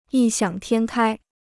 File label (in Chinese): "异想天开", Chinese